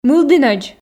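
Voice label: "мылдінӧдз", Komi, female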